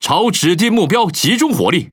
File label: Speech Priority Target, ZH TW; World Of Warships